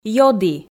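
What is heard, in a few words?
"ёді", Komi, female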